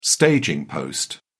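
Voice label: "staging post", British English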